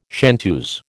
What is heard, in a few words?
"chanteuse", American